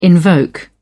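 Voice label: "invoke", British English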